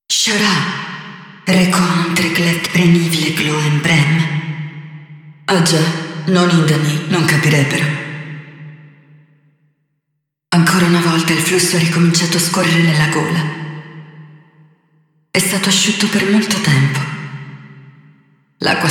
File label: Intro Sp, ita; Yeesha